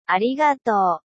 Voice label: "Arigatō" Thanks

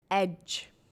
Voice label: "edge", English

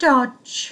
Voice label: "dodge", English

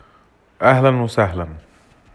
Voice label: "Ahlan Wa Sahlan" welcome

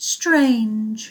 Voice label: "strange", English

